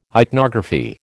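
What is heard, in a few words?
"ichnography", American English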